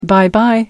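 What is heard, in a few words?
"bye bye", English, British English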